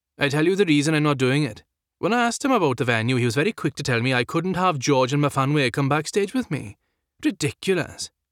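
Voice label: Welsh, accent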